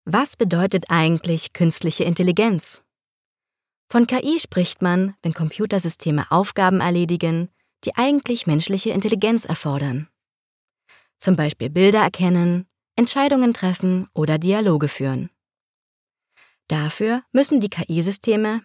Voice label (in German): Elearning, Sprecherin